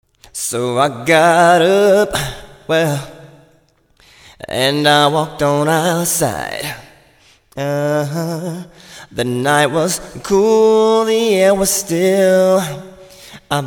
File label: Vox Plate Wet; csr plate